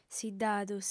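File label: "siddadu", female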